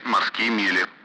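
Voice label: Speech, Russian; Allied Flight; nautical miles; DCS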